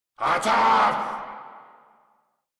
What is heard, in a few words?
Attack, Grineer Male